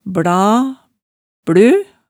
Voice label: "bla, blø", hemsedals maalet tale

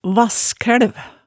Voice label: "vasskælv", hemsedalsmaalet tale

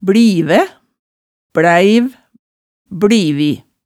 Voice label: "blive, bleiv, blivi", hemsedals maalet tale

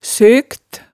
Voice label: "søkt", hemsedalsmaalet tale

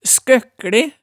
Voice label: "skøkkle", hemsedalsmaalet

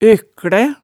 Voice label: "økklæ", hemsedalsmaalet tale

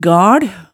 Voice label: "gal", hemsedalsmaalet

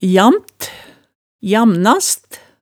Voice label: "jamt", hemsedalsmaalet

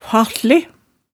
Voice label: "fahsle", hemsedalsmaalet

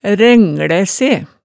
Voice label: "rænglæ se", Hemsedal

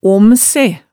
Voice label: "omse", Hemsedal

